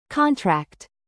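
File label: "content", adjective, British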